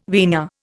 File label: "vina", Indian